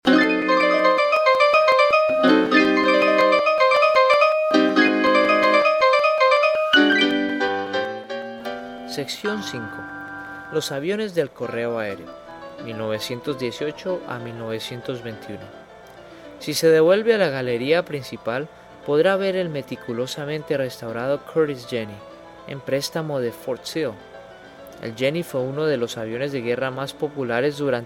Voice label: Spanish Voice And Music; pgparks podcasts